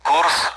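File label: Speech, Russian, AWACS; course; DCS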